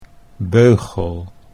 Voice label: "beugel", Dutch

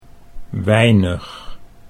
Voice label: "weinig", Dutch

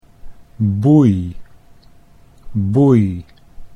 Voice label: "boei", Dutch

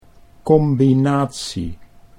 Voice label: "combinatie", Dutch

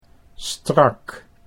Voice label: "strak", Dutch

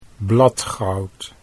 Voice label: "bladgoud", Dutch

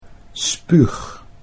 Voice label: "spuug", Dutch

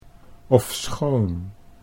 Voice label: "ofschoon", Dutch

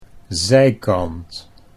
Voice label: "zijkant", Dutch

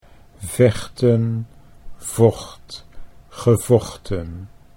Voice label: "vechten vocht gevochten", Dutch

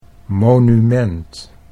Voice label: "monument", Dutch

